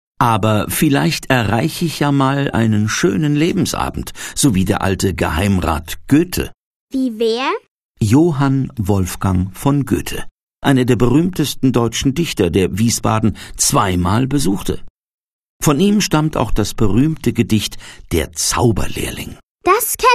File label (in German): hoerspiel